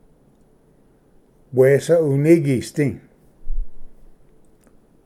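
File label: "wesa unigisdi", Cherokee; ᏪᏌ ᎤᏂᎩᏍᏗ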